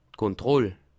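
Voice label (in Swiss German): "kontroll", Bas Rhin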